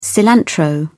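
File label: "cilantro", British English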